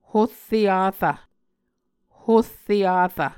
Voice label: "horre arv", Muscogee

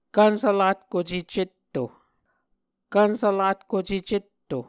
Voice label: "kvnsvlatkuce cetto", Muscogee; Mvskoke Words with Voicing Consonants; A Dictionary of Creek/Muskogee; SIL International

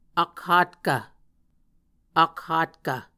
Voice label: "akhatkv", Muscogee

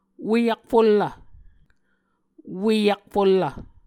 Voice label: "ue akfullv", Muscogee

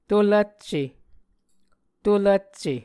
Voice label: "to-lvcce", muscogee; A Dictionary of Creek/Muskogee; SIL International

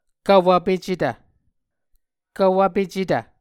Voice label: "kvwvpecetv", Muscogee

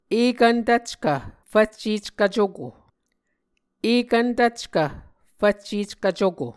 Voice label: "ekvn tvckv fvcceckv cuko", Muscogee; Mvskoke Words with Repeated Consonants; Onvkv Ohhvyayices; A Dictionary of Creek/Muskogee; SIL International

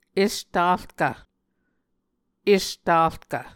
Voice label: "estarkv", Muscogee

